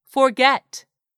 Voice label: "forget", English; 3000 words

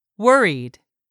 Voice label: "WORRIED", English; 3000 words

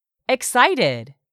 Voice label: "EXCITED", English; 3000 words

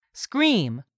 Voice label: "scream", English; 3000 words

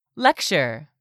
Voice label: "lecture", English; 3000 words

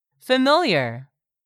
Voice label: "familiar", English; 3000 words

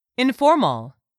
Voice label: "INFORMAL", english; 3000 words

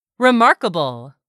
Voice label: "remarkable", english; 3000 words

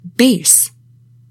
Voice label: "BASE", english; 3000 words

